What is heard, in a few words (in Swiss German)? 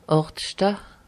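"àchtschta", Alsatian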